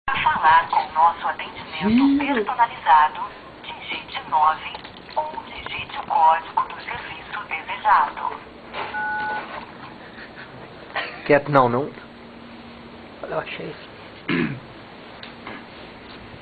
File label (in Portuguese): toque da tim, digite o numero